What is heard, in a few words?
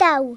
oops, Kidz; Worms Armageddon